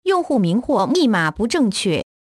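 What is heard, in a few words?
Username Or Password Incorrect, Chinese (China); Hackintosh T480; AXEFIAudio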